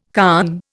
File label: "conn", Indian